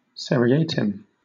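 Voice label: "seriatim", British English; pronunciations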